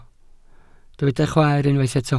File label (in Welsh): "chwaer", Welsh